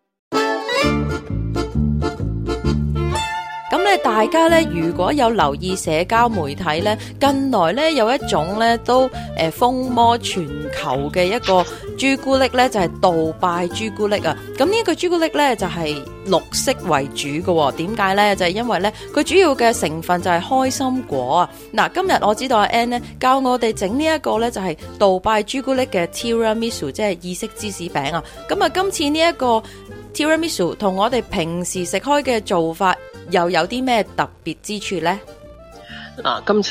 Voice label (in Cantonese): cantonese; 【奢華甜品新演繹】杜拜朱古力 Tiramisu 意式芝士餅; 好煮意; SBS Chinese